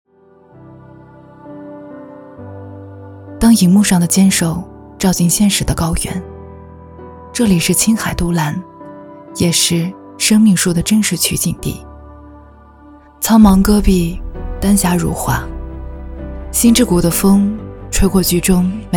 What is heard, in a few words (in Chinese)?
女 旁白 文旅; 都兰